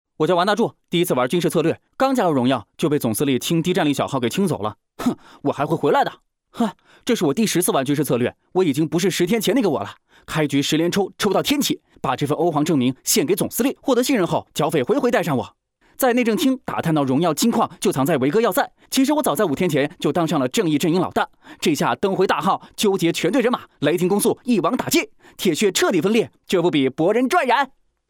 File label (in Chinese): 游戏解说